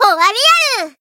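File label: finish, Japanese; lingli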